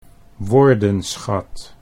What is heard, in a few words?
"woordenschat", Dutch